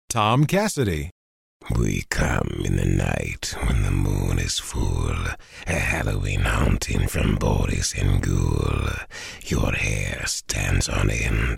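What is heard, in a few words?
monster, voice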